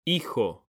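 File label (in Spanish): "hijo", Spanish, male; mekanta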